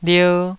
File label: "lier2", Taiwanese syllable